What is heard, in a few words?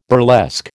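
"burlesque", American English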